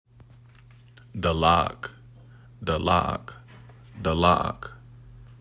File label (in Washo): Dalaak, Washoe Language; (mountain, Mt. Tallac); Lake Tahoe